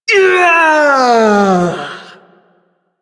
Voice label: Ability Throw, Barks; Warframe; Corpus Lich; Persona C

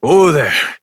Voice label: Horse Stop Walk Adult; Duviri

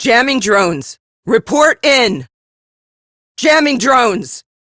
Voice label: Captain Female, drones destroyed, en; Warframe; Railjack; Crp Captain

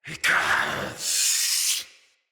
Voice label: Attack Knight Male, Battle; Barks; Dialog; Mendicant

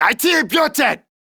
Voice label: Cover Me, Corpus Male; Warframe; Modular Corpus; Battle Barks; en

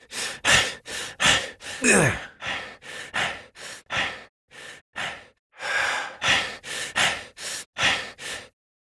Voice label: Operator Voice, en; Warframe; Entrati Lab Quest; Full Quest

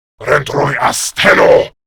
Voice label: Attack Grineer Male; Warframe; Battle Barks

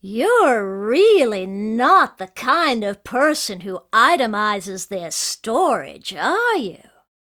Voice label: Remind Taunt; Warframe; Corpus Lich; Persona D

